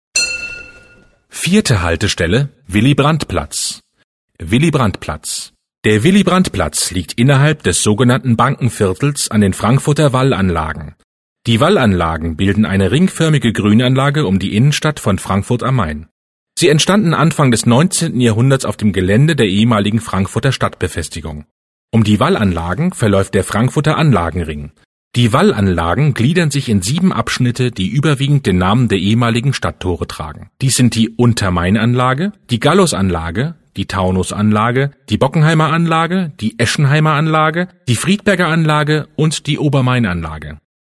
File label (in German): Hochdeutsch; Willy-Brandt-Platz; Ebbelex